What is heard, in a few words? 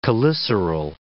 "cheliceral", English